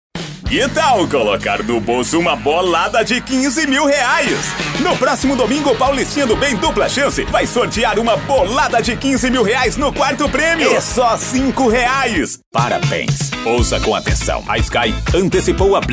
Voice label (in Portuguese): locutor producao de offs vinhetas spots comerciais